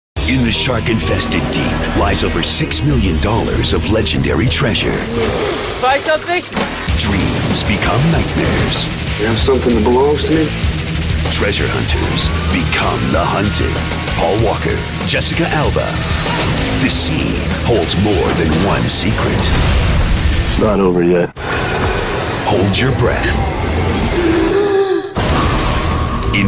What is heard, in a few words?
US English; Into the Blue; Star Movies